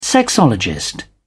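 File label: "sexologist", British English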